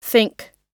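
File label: "think", US English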